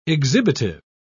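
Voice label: "exhibitive", British English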